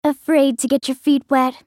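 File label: taunt, English; Nami; Character Voices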